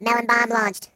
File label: "Melon", robot voice; hedgewars